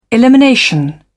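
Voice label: "elimination", British English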